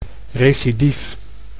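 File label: "recidief", Dutch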